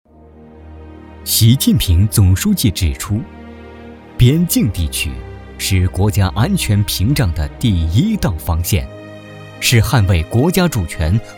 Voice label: 男 城市宣传片; 错那市宣传片

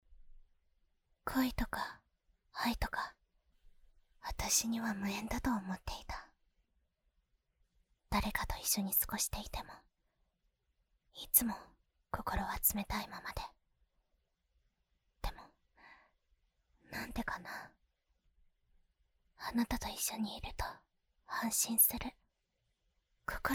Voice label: 外籍日语女 角色 清冷少女 无口系

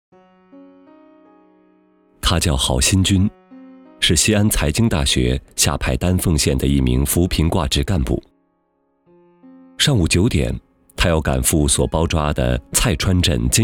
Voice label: 男 走心讲述

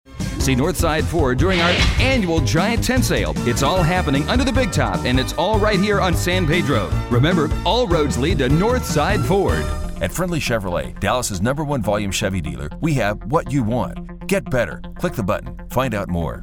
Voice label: 轻快语速

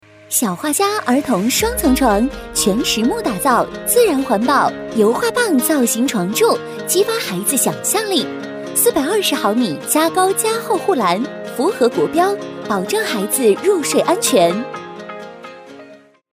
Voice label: 女-广告-儿童双层床-活泼开朗; 小画家儿童双层床

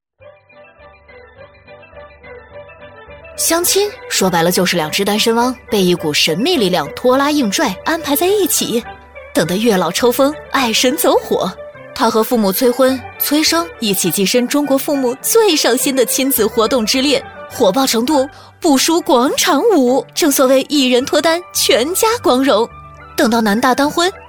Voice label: 女 飞碟说 相亲

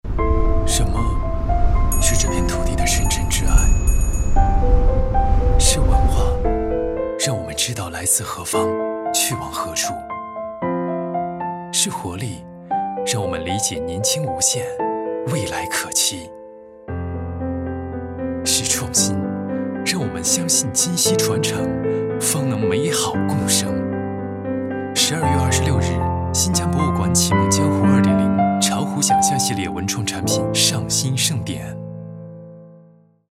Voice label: 男,纪录片; 新疆博物馆文创

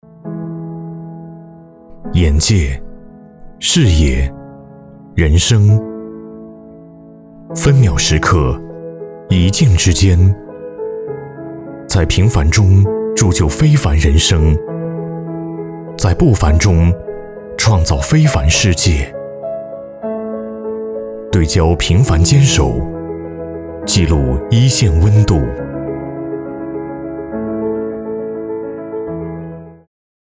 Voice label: 男 走心旁白 温暖大气磁性

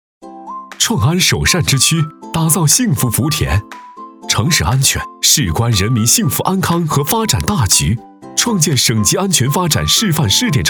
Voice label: 男 MG动画; 飞碟说; 幸福福田

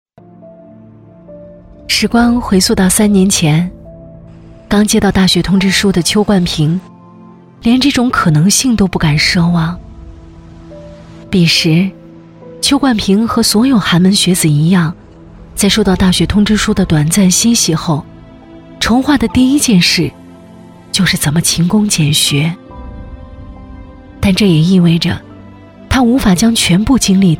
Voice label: 女 微电影; 香江

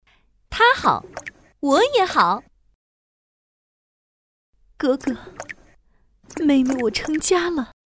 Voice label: 女 游戏角色; 孙尚香

